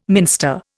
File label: "minster", Indian